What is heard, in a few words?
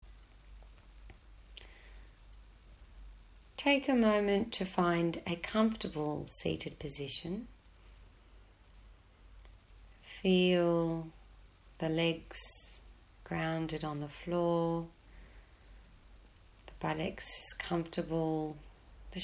breath awareness meditation